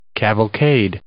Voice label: "cavalcade", English